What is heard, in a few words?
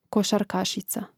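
"košarkašica", Croatian; Mrežnik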